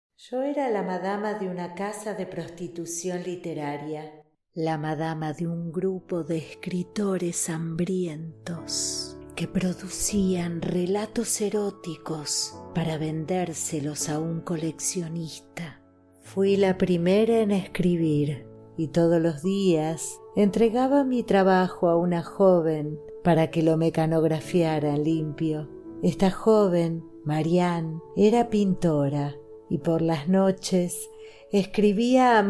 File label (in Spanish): inicio con musica y efecto